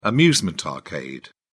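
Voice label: "amusement arcade", British English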